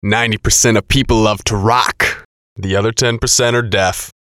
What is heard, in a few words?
VOICE COMICAL; 90 Percent Of People Love To Rock; Funny Sound; Radio Voice; Blastwave